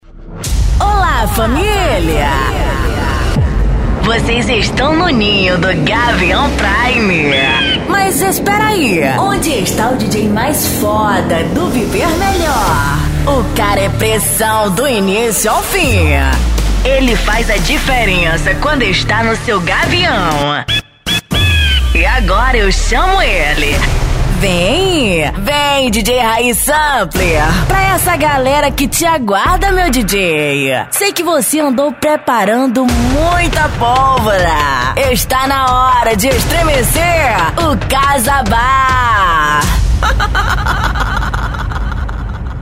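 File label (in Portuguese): locutor, locutora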